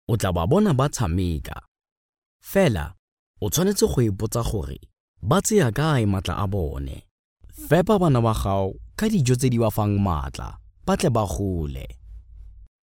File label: male tswana voice over narrative